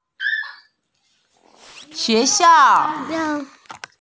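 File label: "xue xiao", Mandarin Chinese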